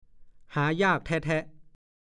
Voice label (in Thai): "หายากแท่ะ ๆ", ภาษาโคราช; คำประชดประชันทำนองว่าคน หรือสิ่งของประเภทนี้มีน้อยหายาก หรือหายากแท้ ๆ